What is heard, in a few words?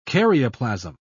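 "karyoplasm", British English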